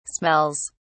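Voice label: "smells", British English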